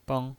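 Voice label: "beng", Mandarin Chinese, neutral tone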